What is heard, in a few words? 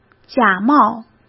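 "假冒", Mandarin Chinese; chinese flashcards; hsk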